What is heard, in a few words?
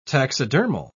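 "taxidermal", British English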